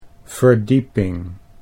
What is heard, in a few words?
"verdieping", Dutch